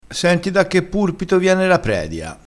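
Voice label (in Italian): "senti da che purpito", dialettale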